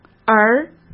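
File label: "而", Mandarin Chinese; hsk; chinese-flashcards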